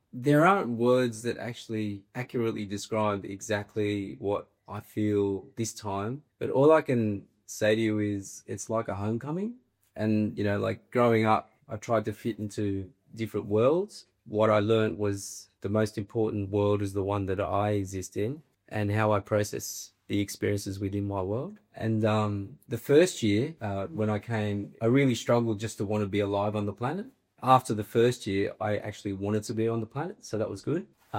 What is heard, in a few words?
Testimonial